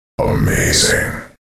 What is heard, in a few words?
"amazing", announcer